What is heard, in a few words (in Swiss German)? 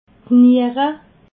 "niere", alsace